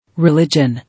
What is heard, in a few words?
"Religion", English